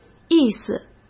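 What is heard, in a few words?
"意思", Mandarin Chinese; hsk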